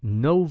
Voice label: "nouv", Occitan

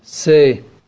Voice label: "see" Kurmanji

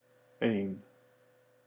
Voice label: "ein", Icelandic

